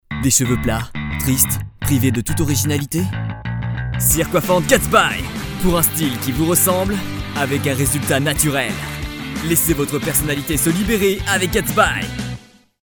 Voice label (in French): pub général jeune et dynamique